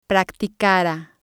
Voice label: "practicara", spanish

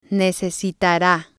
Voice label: "necesitara", spanish

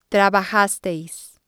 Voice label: "trabajasteis", Spanish

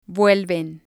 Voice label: "vuelven", Spanish

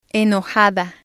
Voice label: "enojada", Spanish

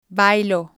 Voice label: "bailo", spanish

